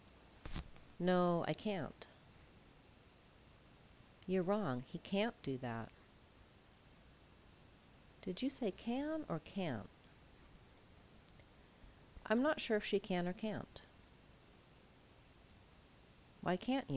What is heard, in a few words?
stressed cant